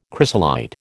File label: "chrysolite", American